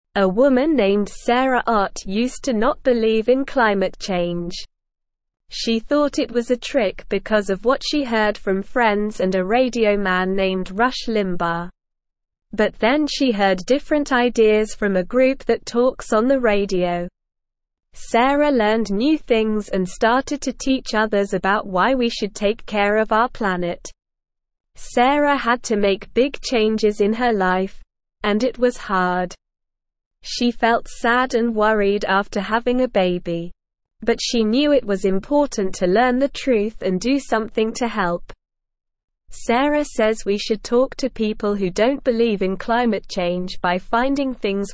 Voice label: English Newsroom Lower Intermediate SLOW Reading; Sarah Learns About Global Warming and Teaches Other

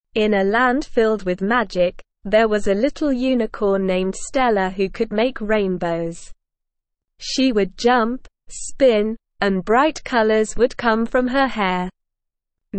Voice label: ESL Short Stories for Kids Beginner SLOW Reading; The Rainbow Bridge

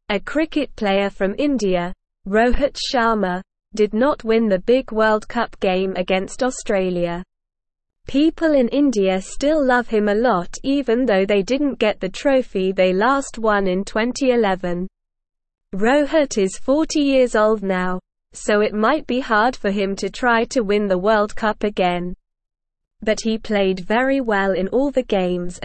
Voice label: English Lower Intermediate SLOW Reading; Rohit Sharma A Cricket Hero Who Never Gives Up; English Newsroom

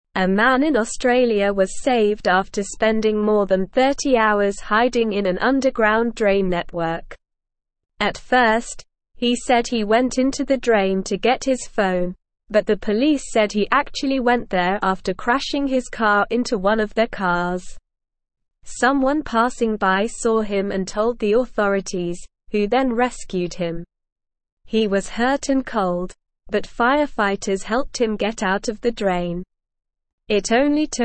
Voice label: English Upper Intermediate SLOW Reading; Man Rescued from Underground Drain After Fleeing Ca

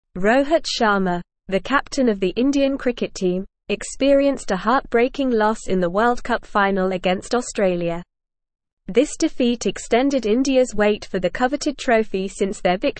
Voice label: English Advanced NORMAL Reading; Rohit Sharma The Unsung Hero of Indian Cricket

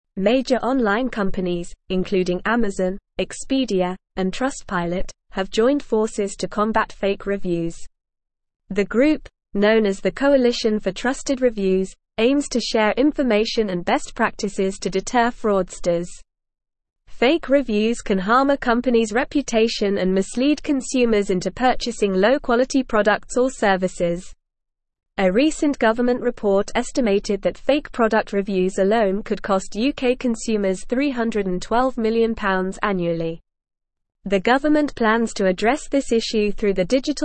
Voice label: English Newsroom Advanced NORMAL Reading; Coalition for Trusted Reviews Combating Fake Online Reviews

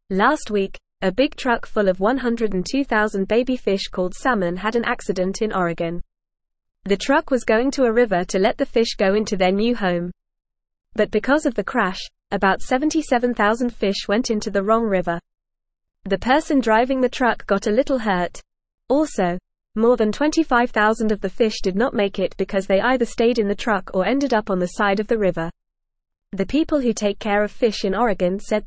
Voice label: English Beginner FAST Reading; English Newsroom; Big Truck Accident Sends Baby Fish to Wrong River

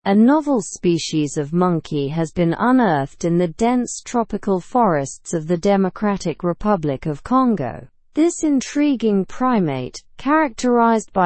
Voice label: learn english through news advanced slow; new species of monkey discovered in dr congo forest

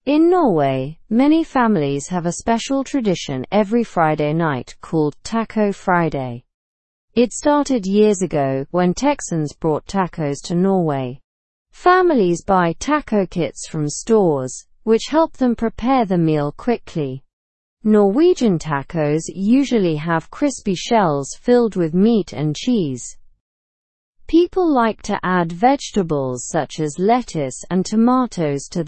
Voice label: english through news lower intermediate slow; norway s love for tacos

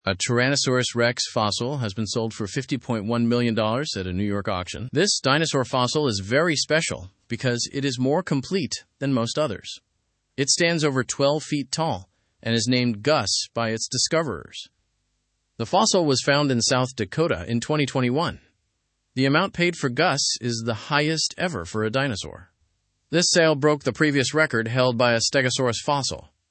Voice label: english news lower intermediate; record breaking t rex fossil sale in new york

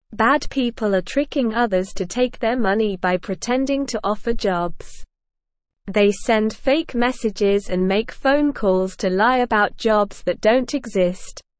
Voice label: Beginner SLOW Reading, English; English Newsroom

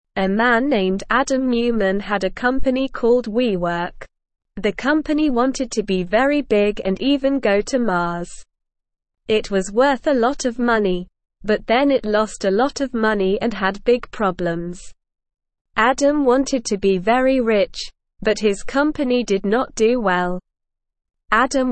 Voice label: English Lower Intermediate SLOW Reading; Adams Big Dream WeWorks Rise and Fall; English Newsroom

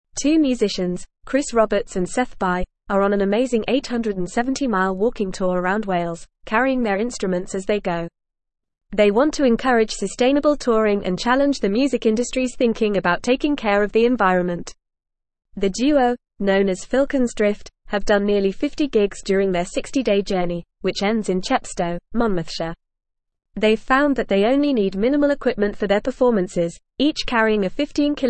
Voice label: English Upper Intermediate FAST Reading; Musicians Promote Sustainable Touring Through 870 M; English Newsroom

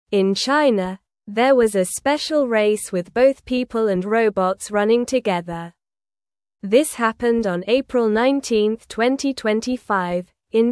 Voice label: English Lower Intermediate SLOW Reading; English Newsroom; Robots and People Race Together in China

